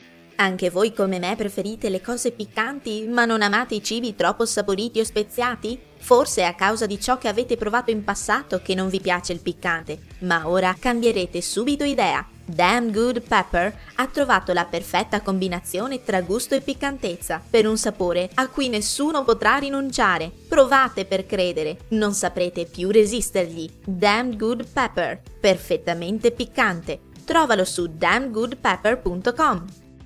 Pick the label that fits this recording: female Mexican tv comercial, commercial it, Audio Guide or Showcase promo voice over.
commercial it